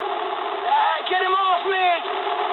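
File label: aircraft damaged mood low, speech English; War Thunder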